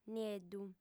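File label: "nieddu", female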